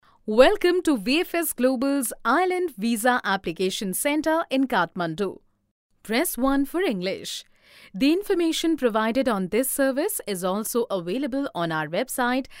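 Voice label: IVR english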